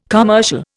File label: "commercial", Indian